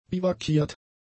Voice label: "biwakiert", German; adjectives

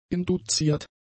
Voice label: "induziert", German; adjectives

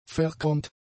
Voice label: "verchromt", German; adjectives

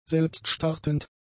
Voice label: "selbststartend", German; adjectives

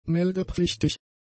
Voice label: "meldepflichtig", German; adjectives

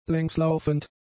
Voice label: "längslaufend", German; adjectives

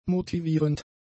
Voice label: "motivierend", German; adjectives

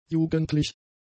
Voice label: "jugendlich", german; adjectives

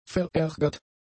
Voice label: "verärgert", German; adjectives

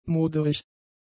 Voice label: "moderig", German; adjectives

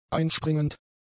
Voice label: "einspringend", german; adjectives

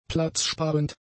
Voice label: "platzsparend", German; adjectives

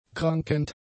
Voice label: "krankend", German; adjectives

